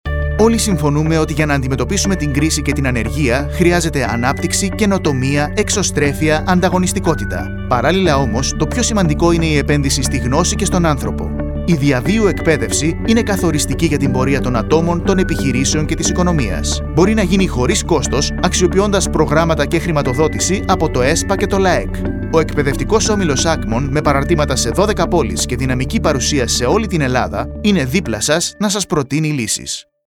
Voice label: greek narration